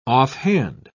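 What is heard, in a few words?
"off-hand", British English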